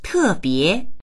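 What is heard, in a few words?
"te4bie2", Mandarin Chinese; 特别; tèbié; 特別である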